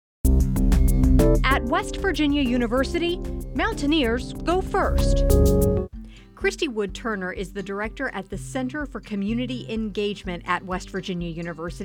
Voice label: radio spot; kwt studentservice